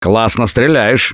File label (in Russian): Nice Shot, left4dead2 russian, Survivor Voice; Gambler